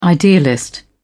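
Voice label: "idealist", British English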